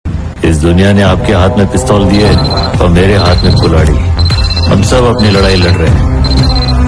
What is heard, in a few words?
Dialogue Ringtone; Is Duniya Nay Apko Pistol Diya